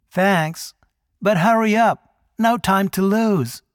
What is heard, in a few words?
Voice Dialog; we are the dwarves gamerip 2016